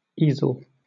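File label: "easel", British English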